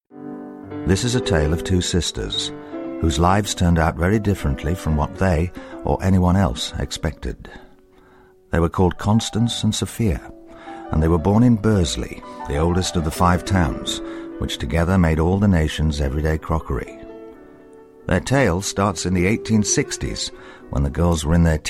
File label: Narration